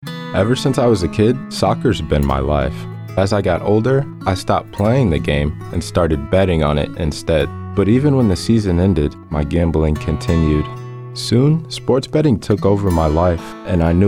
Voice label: Male